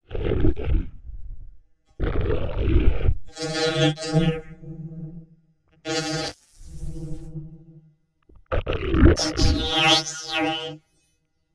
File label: Dybbuk Conversation, Vocals - Humanoid